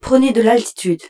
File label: French - Thrustup